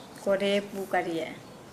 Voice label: "mulberry", Nukuoro